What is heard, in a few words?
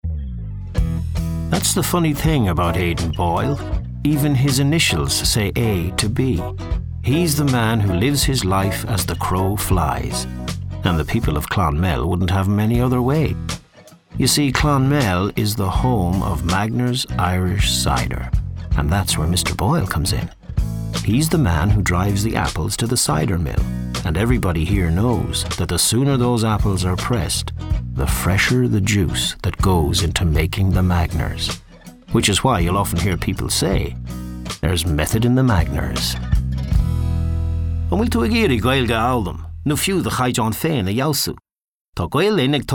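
voice over demo tape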